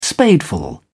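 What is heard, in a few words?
"spadeful", English, British English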